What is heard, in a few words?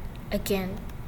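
"again", Chinese female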